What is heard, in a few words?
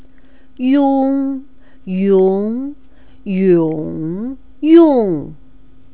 "yong", Chinese pronunciation